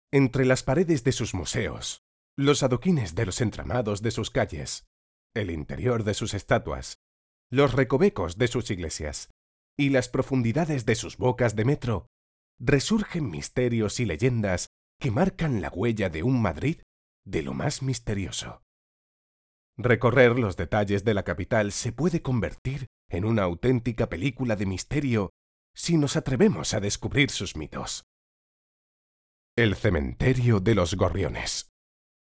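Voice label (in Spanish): generico España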